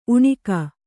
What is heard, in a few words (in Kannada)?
"ಉಣಿಕ", Kannada